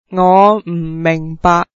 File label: i dont understand, cantonese